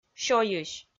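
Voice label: "сёйысь" Komi, female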